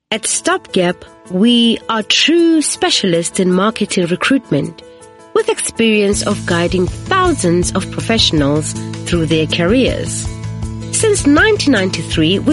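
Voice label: African English, female, corporate, accent of africa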